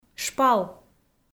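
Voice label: "шпал", Komi, female; kpv audio dict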